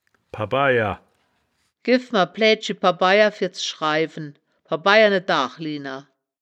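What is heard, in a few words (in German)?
"pabaia", wittlicher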